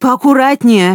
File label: drop weapon, human woman threat; Stalker Anomaly